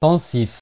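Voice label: "pensif", French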